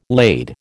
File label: "lade", American